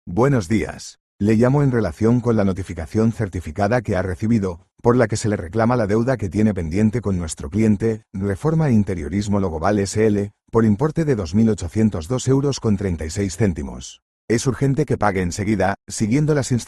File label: audio call